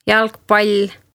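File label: "jalgpall", Estonian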